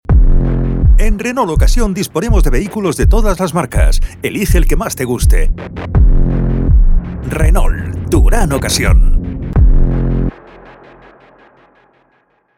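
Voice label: comercial, Spanish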